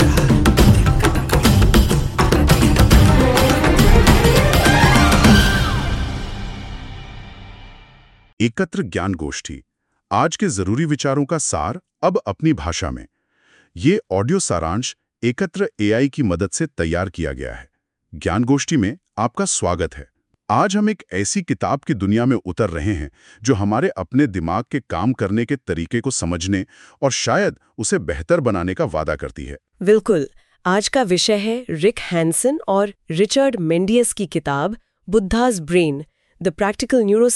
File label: hindi